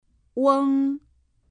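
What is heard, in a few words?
"ueng", Chinese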